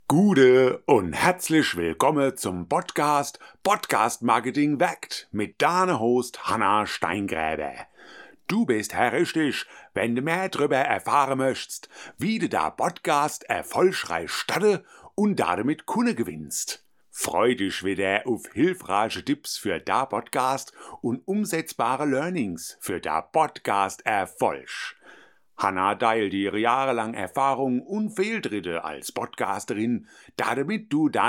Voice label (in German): Hoerprobe hessisch